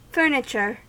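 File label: "furniture", American English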